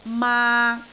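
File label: "maak3", Cantonese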